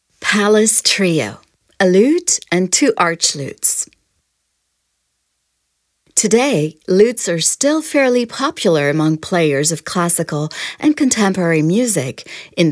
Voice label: audioguia english; delamusica